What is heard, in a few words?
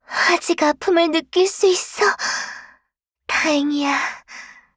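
kill Player, Voice Line, Korean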